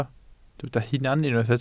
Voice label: "hunan", Welsh